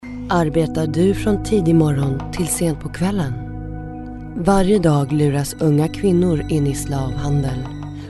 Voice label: narration